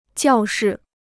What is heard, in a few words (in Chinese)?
"教室", Chinese